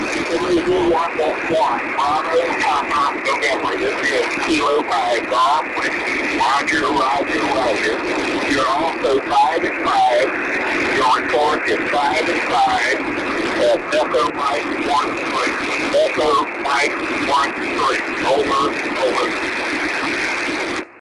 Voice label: ssb, 1296eme